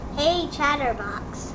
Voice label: hey chatterbox, en us